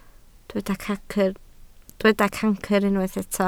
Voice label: "cancr", Welsh